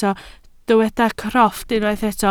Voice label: "crofft", Welsh

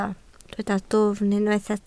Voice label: "dwfn", Welsh

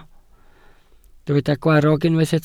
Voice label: "gwarrog", Welsh

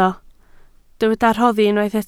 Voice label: "rhoddi", Welsh

